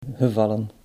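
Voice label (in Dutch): "gevallen", Dutch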